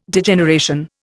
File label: "Degeneration", Indian